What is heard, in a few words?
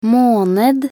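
"måned", Norwegian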